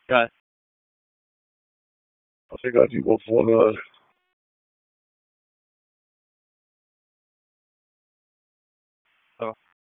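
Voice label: Raleigh Police Northeast District Dispatch; wake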